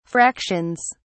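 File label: "fractions", English, British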